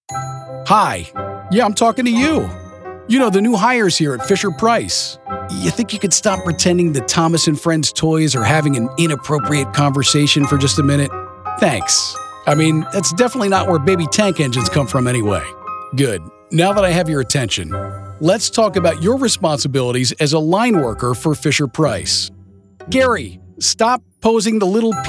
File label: eLearning